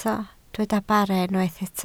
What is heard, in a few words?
"bara", Welsh